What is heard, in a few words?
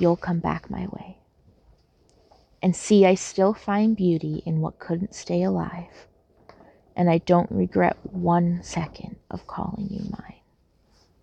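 Wilted Flowers A Poem, female; F4A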